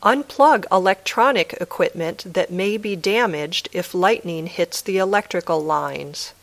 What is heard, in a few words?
Dictation